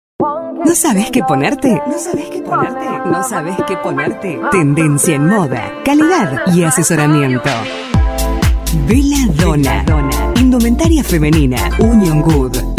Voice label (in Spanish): Potente Cool Grave